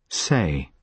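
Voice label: "say", British English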